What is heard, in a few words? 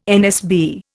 "NSB", Indian